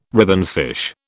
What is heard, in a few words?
"ribbonfish", British